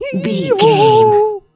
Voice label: voice fun character cute cartoon